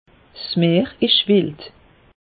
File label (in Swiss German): "meer", alsace; meteo